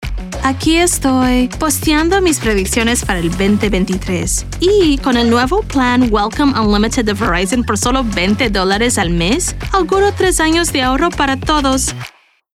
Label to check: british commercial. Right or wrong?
Wrong